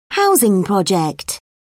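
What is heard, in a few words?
"housing project", British English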